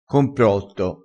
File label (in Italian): "comprotto", crespinese